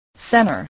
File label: "center", English, voice